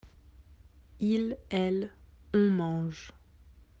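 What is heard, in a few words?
"manger", French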